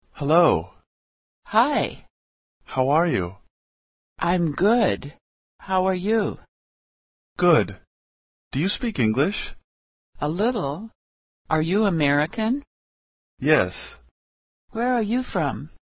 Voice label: Normal Voice